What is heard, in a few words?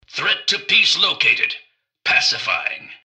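attack, robot; npc; mrgutsy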